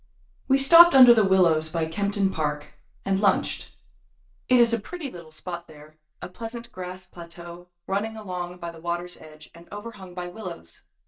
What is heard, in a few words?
dereverb anechoic, Speech; anechoic target; Towards Real-Time Single-Channel Speech Separation in Noisy and Reverberant Environments